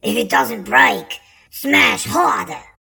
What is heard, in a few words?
npc orc weaponsmith